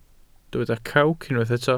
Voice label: "crawc", Welsh